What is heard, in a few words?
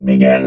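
"begin", robot voice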